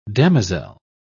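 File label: "damozel", British English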